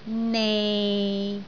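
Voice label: "nei6", Cantonese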